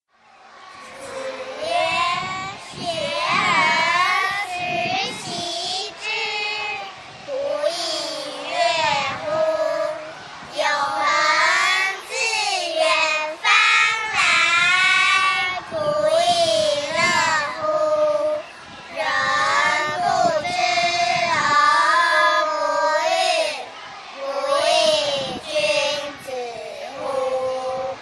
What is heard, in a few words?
lunyu children zh